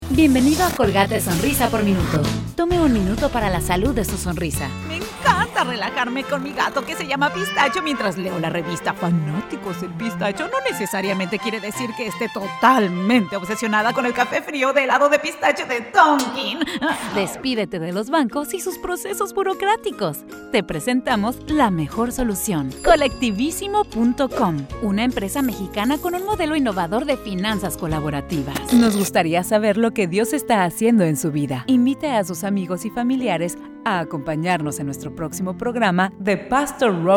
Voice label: spanish latin american commercial

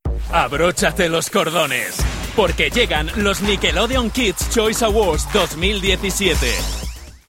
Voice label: spanish promos

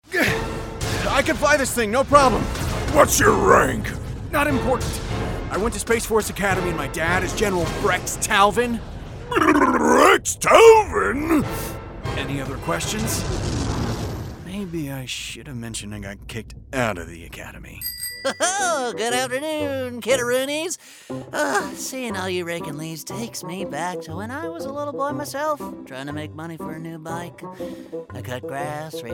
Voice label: english american animation

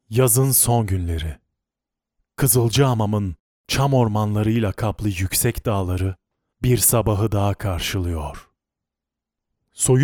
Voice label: turkish documentaries

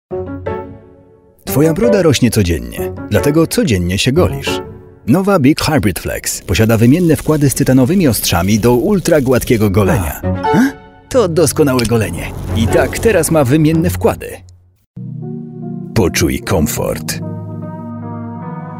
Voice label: polish commercial

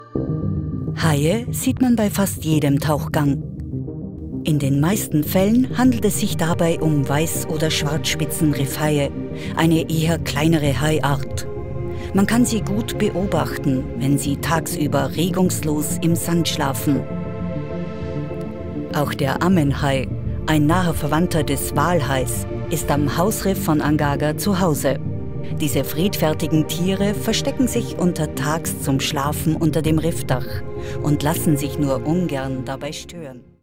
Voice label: german austrian documentaries

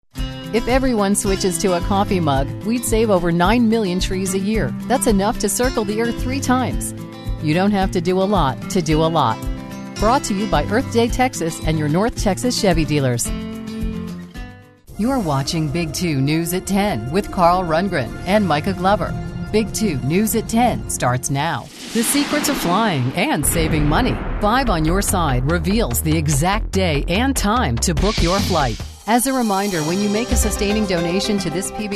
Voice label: english american promos

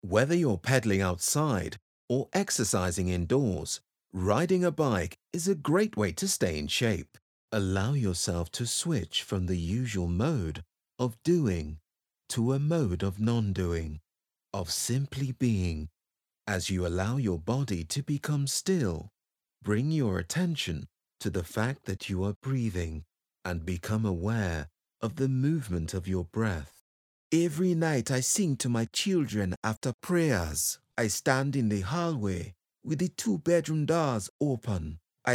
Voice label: english british audiobooks

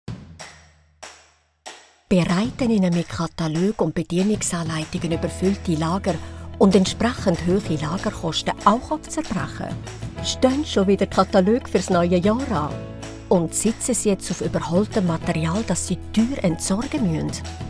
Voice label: german switzerland e-learning; professional Swiss voice actor

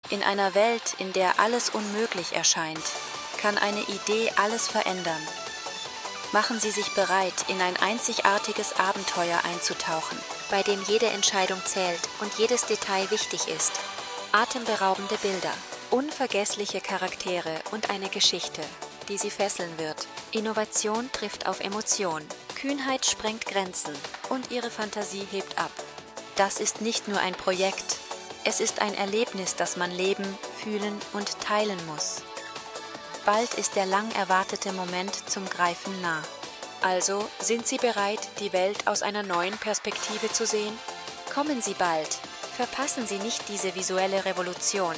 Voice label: german movie trailers

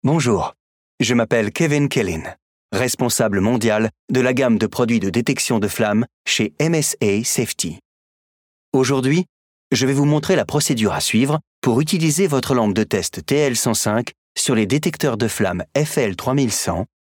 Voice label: french e-learning

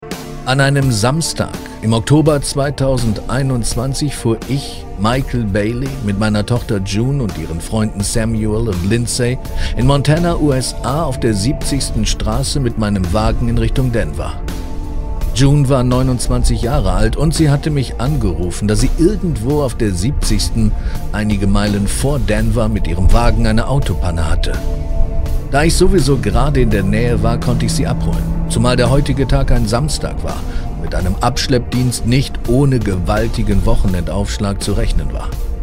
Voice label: german animation; professioneller deutscher männlicher Sprecher und Synchronsprecher

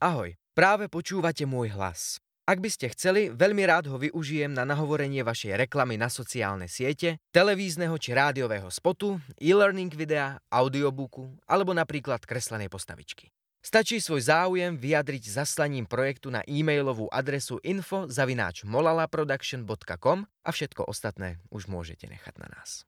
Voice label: slovak commercial

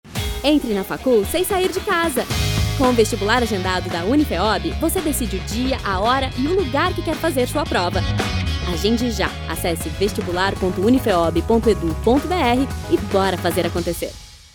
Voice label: portuguese brazilian radio imaging

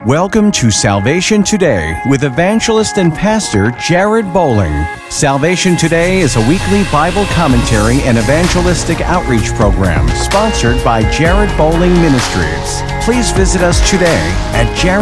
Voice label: english american podcasts; professional American voice talent

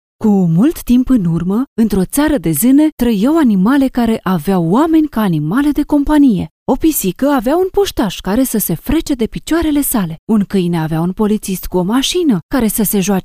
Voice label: romanian video games

